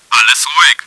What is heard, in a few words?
all quiet, german; csco; csgo; bot